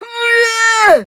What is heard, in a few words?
Death, Grineer Queens Guards, Battle Barks, en; Warframe